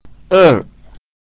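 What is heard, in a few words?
"er" two, Mandarin Chinese pinyin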